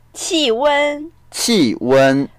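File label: "qi4wen1", Mandarin Chinese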